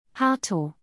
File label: "Harto", german; indonesian boy names